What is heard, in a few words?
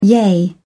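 "yea", British English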